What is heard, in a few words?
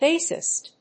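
"bassist", English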